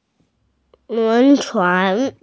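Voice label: "steamship", Mandarin Chinese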